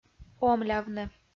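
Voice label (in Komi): "омлявны", Komi, female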